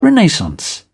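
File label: "renaissance", English, British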